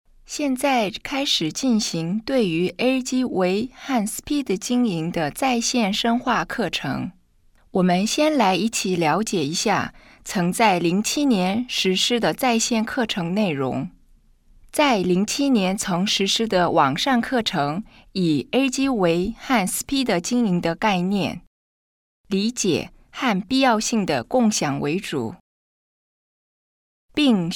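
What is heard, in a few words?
chinese mandarin female narration; voice demos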